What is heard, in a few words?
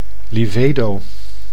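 "livedo", Dutch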